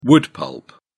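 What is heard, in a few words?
"wood pulp", British English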